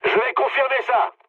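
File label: French player killed enemy mood high; War Thunder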